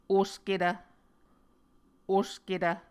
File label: "osketv", Muscogee